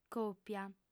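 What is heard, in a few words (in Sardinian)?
"còpia", female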